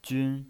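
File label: "jun", first tone, pinyin voice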